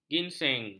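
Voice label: "ginseng", Malay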